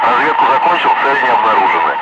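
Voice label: Russian speech, Ka-50 Wingman; DCS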